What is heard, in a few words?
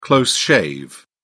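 "close shave", British English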